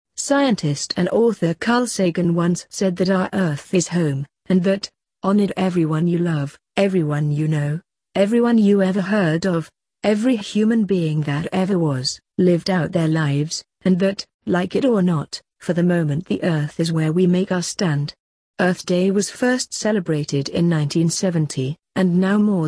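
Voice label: British; earth day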